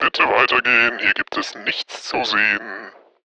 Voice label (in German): roland vt-3 megaphon